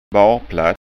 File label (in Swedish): "barplatt", skanska ord